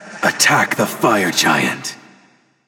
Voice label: VOX Attack; Smite; Galactic Void Chernobog; VGS